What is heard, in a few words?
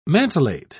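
"mantelet", English, British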